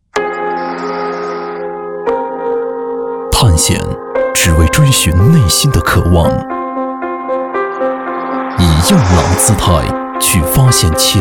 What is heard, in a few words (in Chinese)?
男 广告 汽车 品质感 沉稳 磁性; 福特3